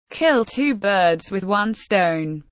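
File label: "Kill two birds with one stone", English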